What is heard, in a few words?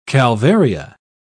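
"calvaria", British English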